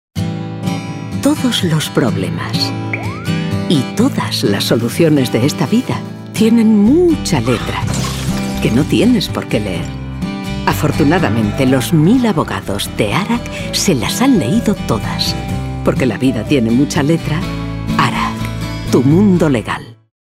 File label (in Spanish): Femenino